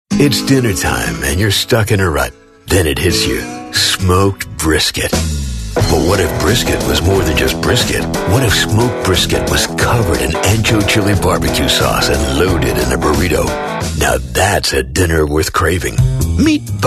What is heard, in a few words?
english american voice over male